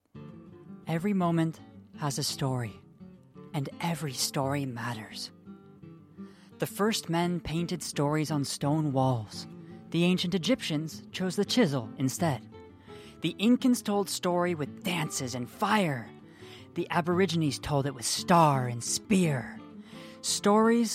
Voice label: Narration; Narration Demo